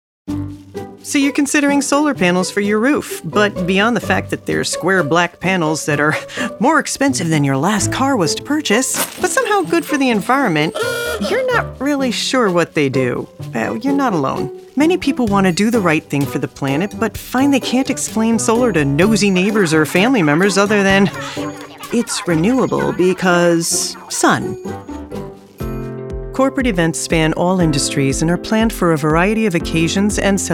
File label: E LEARNING